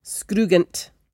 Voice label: "scroogent", Cromarty; [scrrOOgunt]; half-dry; Word